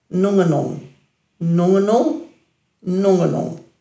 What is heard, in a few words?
"nungangung", Dhurga